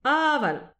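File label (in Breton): "aval", Breton; petit precis de prononciation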